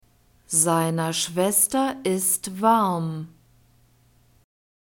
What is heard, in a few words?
"seiner Schwester ist warm", German; nouns declension dative